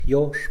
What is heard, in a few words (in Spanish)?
"ofrenda", Mixe, hombre; Religión